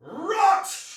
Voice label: Attack, Soldier Male; Sinecure